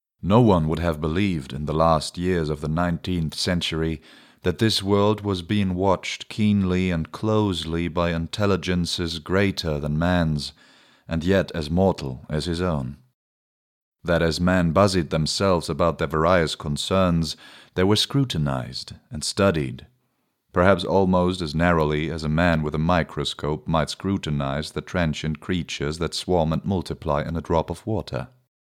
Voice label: audiobook; War of the worlds